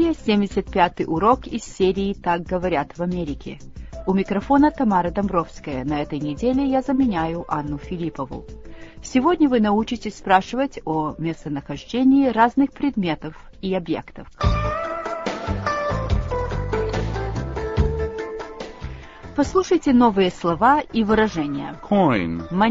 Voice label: russian english